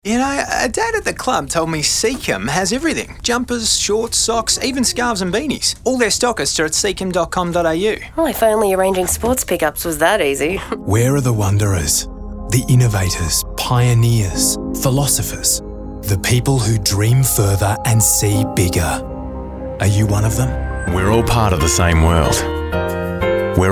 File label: Voice Acting